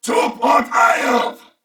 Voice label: Attack, Corpus Venus Male